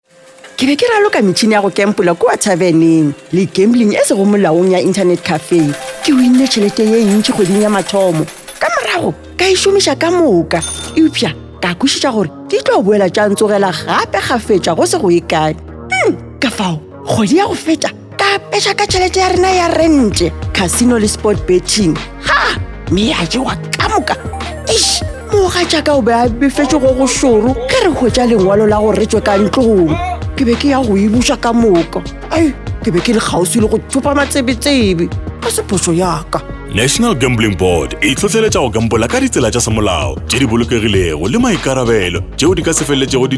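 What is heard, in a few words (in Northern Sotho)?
Sepedi, Awareness Campaigns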